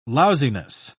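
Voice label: "lousiness", British English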